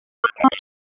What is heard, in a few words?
Police North District Tac 1, p25; Raleigh; wake